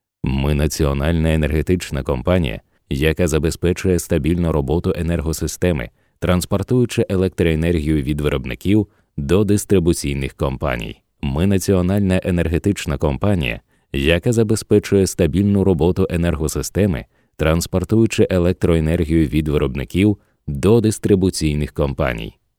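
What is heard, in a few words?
Ukrainian, male, narration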